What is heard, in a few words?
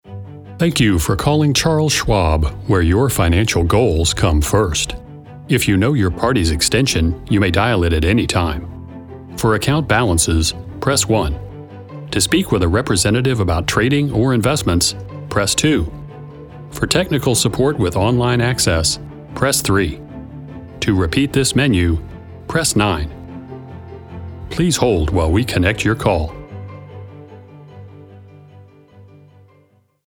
Polished Professional; voiceover; voiceover professional in St. Louis, Missouri